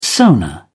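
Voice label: "sonar", British English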